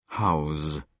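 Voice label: "house", British English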